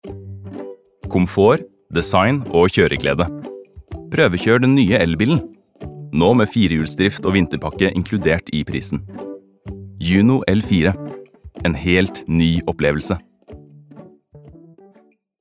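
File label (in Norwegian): Norwegian advert, norwegian male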